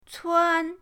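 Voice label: "cuan", Mandarin pinyin, first tone; pinyindct-open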